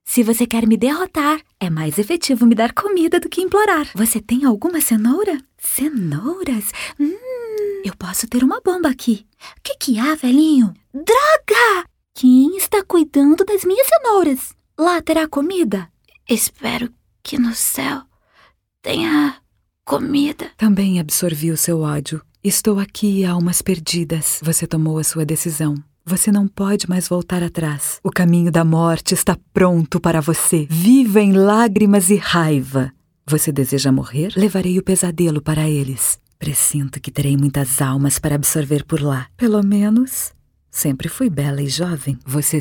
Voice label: Caricatas Personagens

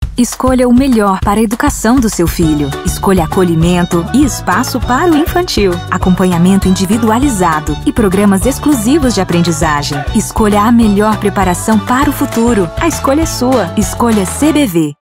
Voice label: Dinamico Institucional; Colegio CBV

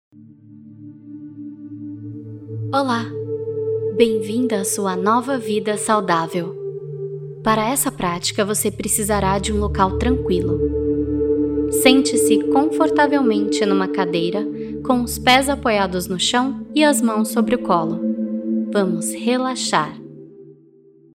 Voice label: MEDITACAO

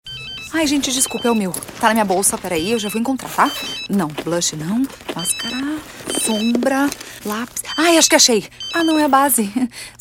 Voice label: Locução; O Boticario

